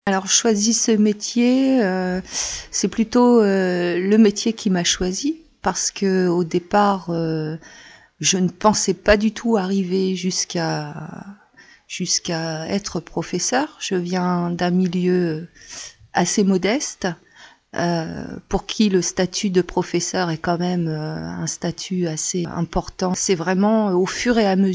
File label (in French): interview